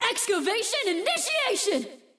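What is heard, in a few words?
English; 探奇（守望先锋2）; 终极技能; 主动/敌方释放语音; 英语; Excavation initiation!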